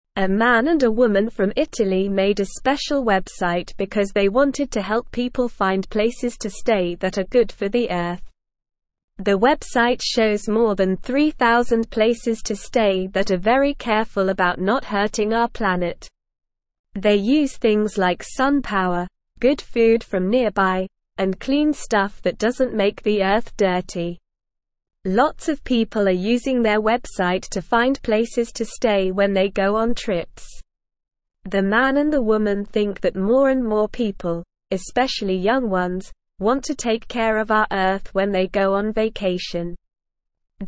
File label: English Newsroom Beginner SLOW Reading; Helping the Earth Find Eco Friendly Places to Stay